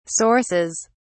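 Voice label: "sources", British English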